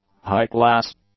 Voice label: "highclass", English; adjectives